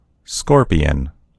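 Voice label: "scorpion", American English; gcompris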